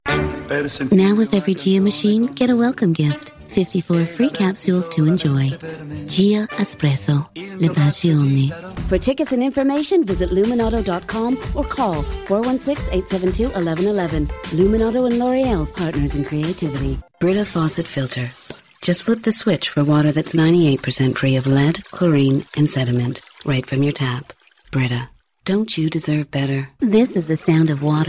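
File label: F, English Canada, female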